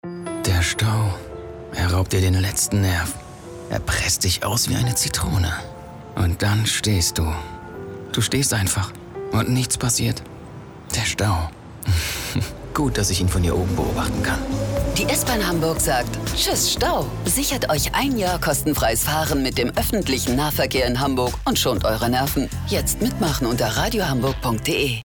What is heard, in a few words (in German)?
funk spot; s-bahn hamburg